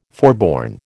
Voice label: "forborne", American

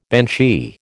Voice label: "banshee", American

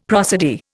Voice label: "prosody", Indian; Dictionary

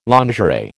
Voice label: "lingerie", American

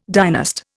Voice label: "dynast", Indian

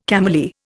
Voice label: "cameleer", Indian

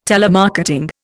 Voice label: "telemarketing", Indian

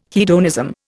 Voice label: "hedonism", Indian

